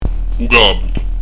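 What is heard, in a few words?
"u capu", Prononciation du corse; u g'abu; Son "adouci"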